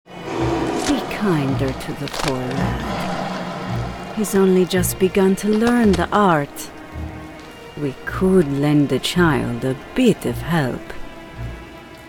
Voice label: Woman EU F adult mysterious textured; fantasy; The Necromancers Tale

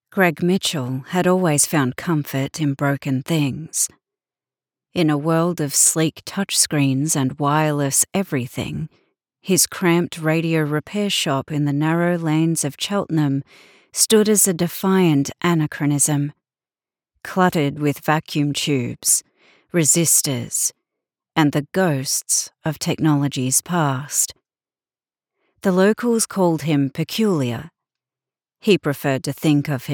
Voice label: Horror Supernatural STATIC; australian voice over; audiobook narration

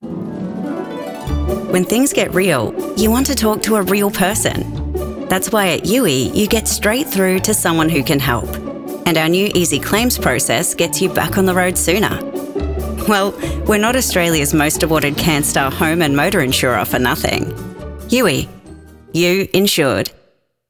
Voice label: VO Commercial AU; accents characters age voice samples; YOUI

